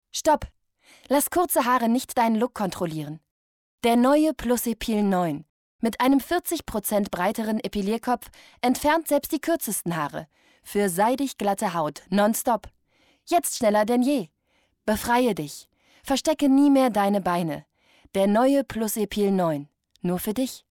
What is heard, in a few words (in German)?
epilierer werbung